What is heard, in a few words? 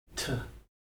"t", Heiltsuk